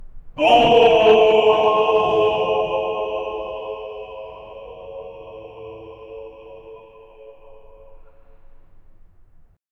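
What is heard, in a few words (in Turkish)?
yüksek sesle bağıran bir erk, meme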